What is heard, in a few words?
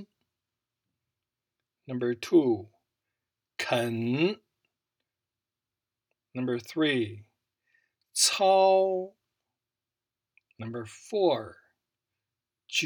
finals vowels, chinese